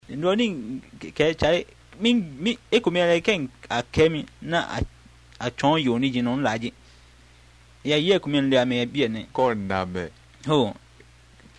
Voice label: conversation, Ga